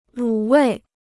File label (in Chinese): "卤味", Chinese